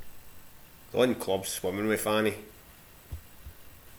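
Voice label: "fanny", slang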